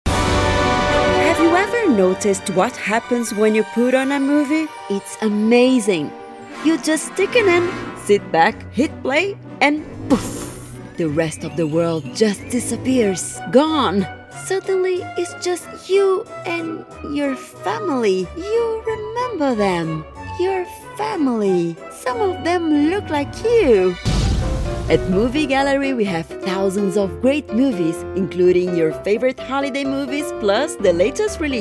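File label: Brazilian Portuguese accented English; GoLocalise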